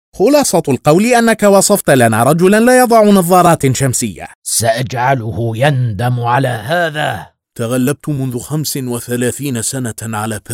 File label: Character acting voice, Arabic; أصوات شخصيات; مؤسس قناة عنادل على يوتيوب التي تُعنى باللة العربية وفنونها